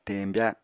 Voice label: "teñbya", apatani